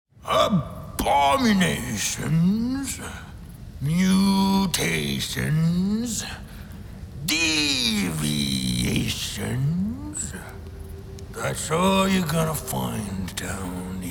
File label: Creature